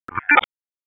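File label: Public Safety Tactical, p25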